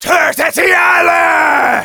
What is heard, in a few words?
battlecry; Demoman